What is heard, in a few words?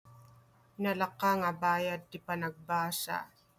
Ilocano; cheaper tuition fee - nalaka nga bayad ti panagbasa